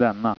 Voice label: "denna", Swedish